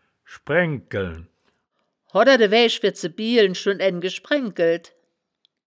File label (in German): "schbraeng keln", wittlicher woerterbuch; das wittlicher woerterbuch; woerterbuch vertonung